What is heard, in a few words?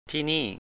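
"ที่นี่" Thai, male; 'here'; thî:nî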